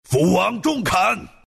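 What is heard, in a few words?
attack, Chinese; dota2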